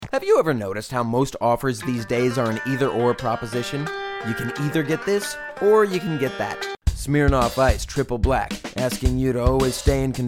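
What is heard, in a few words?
US commercial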